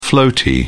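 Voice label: "floaty", British English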